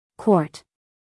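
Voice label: "quart", American English female; everyone-can-use-english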